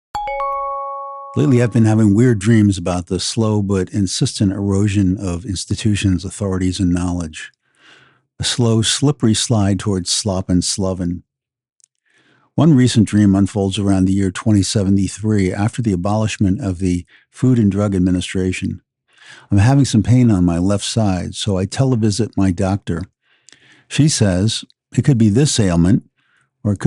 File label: voiceover; Building Positive Digital Futures